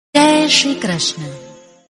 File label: Jai Shree Krishna Female Voice Ringtone